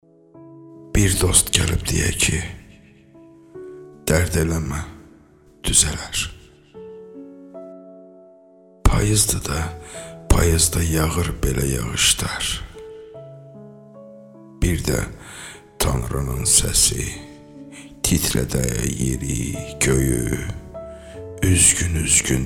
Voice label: Azerbaijan male

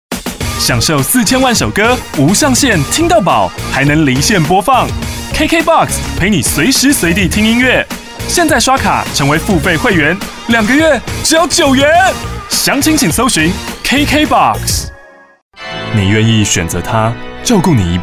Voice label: Chinese - Mandarin

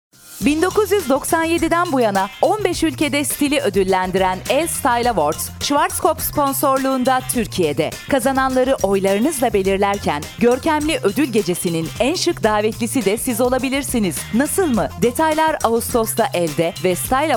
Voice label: Turkish female

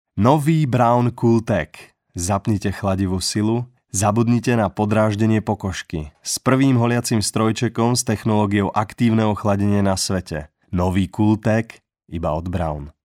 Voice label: Slovak male

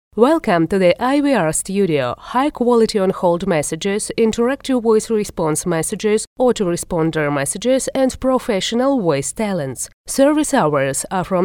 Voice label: En, golosa treki

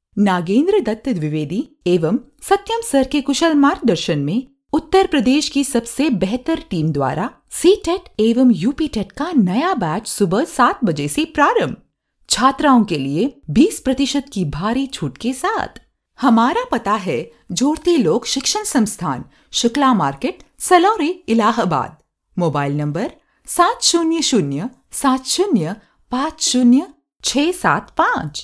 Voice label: Hindi female

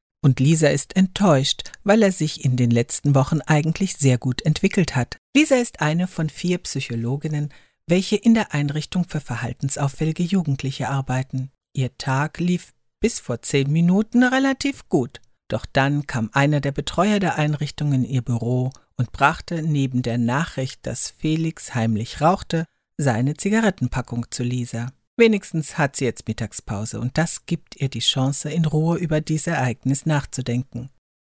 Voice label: German female

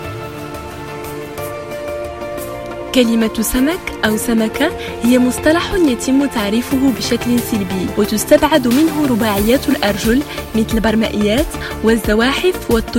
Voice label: Arabic

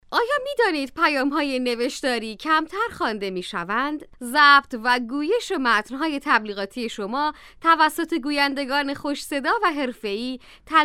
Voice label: Persian-Farsi female

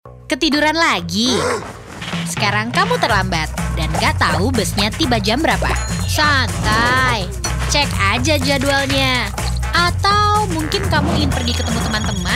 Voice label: Indonesian

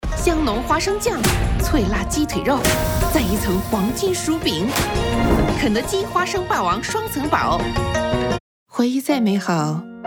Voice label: Chinese - Mandarin

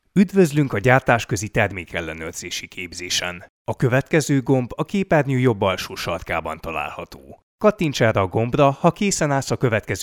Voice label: Hungarian male

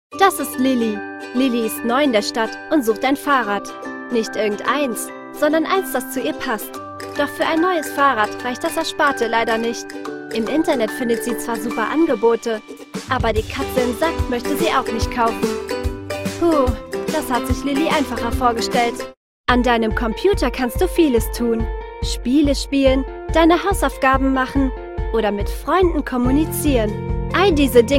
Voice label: German

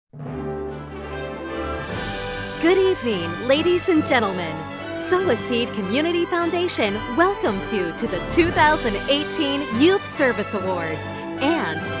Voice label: English - American

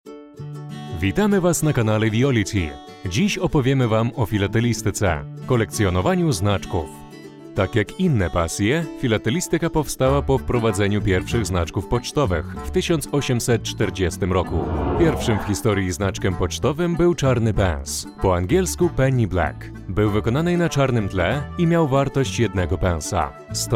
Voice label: Polish

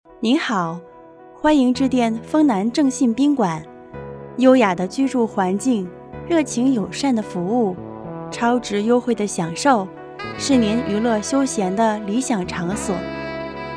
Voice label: Chinese Mandarin

